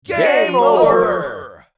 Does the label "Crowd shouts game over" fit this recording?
Yes